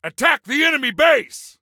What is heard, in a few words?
Attack Base, Soldier Male; Soldier Male 03